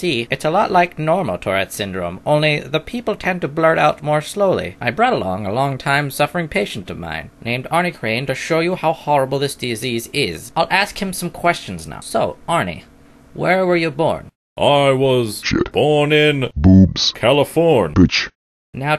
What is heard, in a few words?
Commercial Monotone; fake commercials; Retarded Tourettes